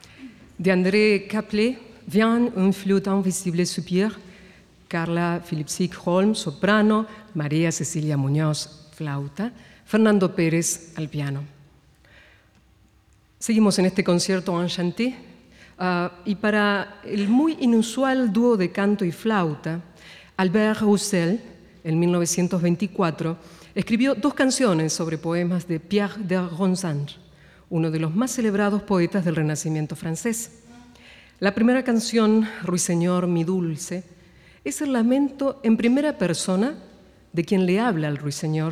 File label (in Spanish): locutora